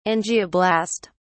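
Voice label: "angioblast", British English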